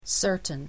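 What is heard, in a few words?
"certain", English word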